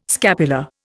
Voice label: "scapular", Indian